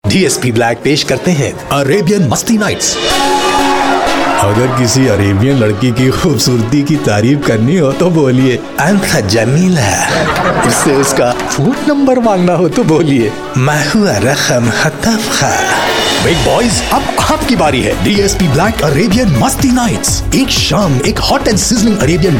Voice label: Hindi Male High Energy Commercial; India